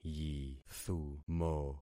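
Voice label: "yithumo", concatenated syllables; Affixing experiment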